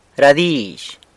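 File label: "ra dizh", San Lucas Quiaviní Zapotec; words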